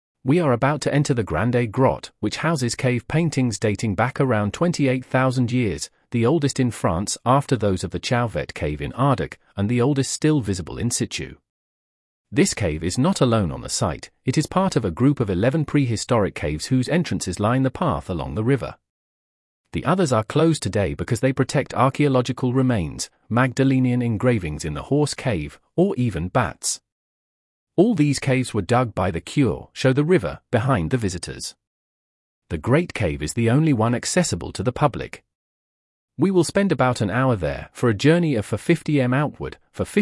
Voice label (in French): presentation anglais